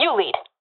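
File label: following friend, professional female; vo; Counter Strike Model Pack; CS:GO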